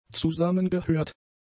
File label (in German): "zusammengehört", German; adjectives